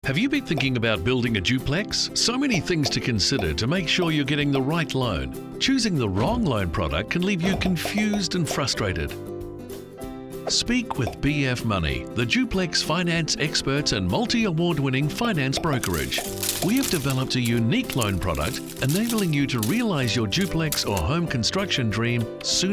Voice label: Corporate

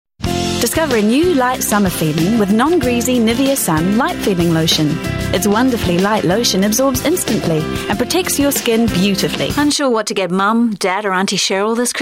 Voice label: NZ

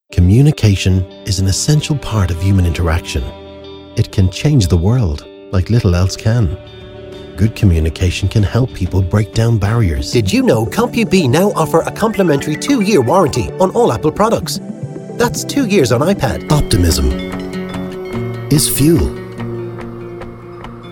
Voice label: COMMERCIAL

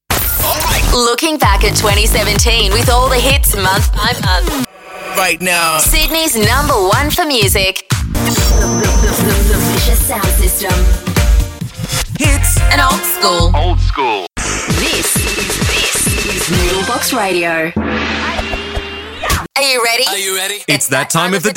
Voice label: Imaging

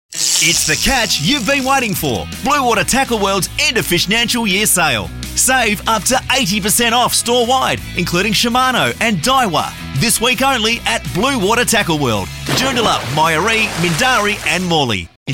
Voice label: Voiceover

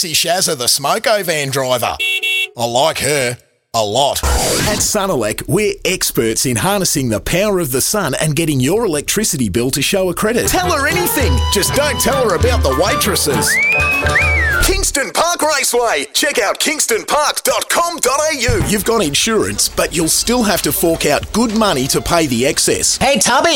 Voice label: Aussie

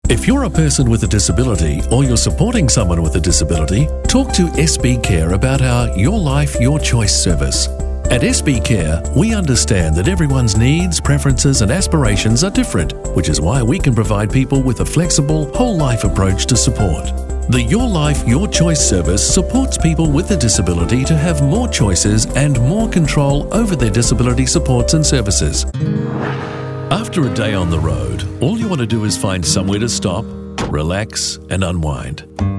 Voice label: Soft Sell

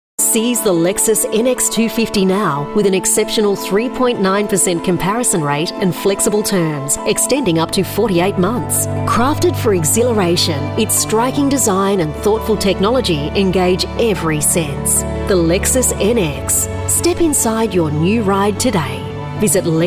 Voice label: Voice Casting Sample

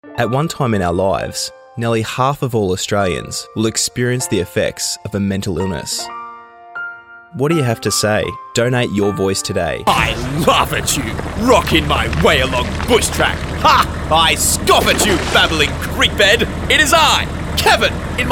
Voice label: Commercial

